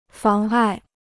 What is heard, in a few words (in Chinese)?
"妨碍", Chinese